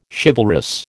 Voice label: "chivalrous", American